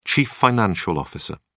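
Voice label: "chief financial officer", British English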